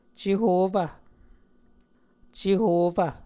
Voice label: "cehofv", muscogee